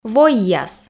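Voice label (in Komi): "войяс", Komi, female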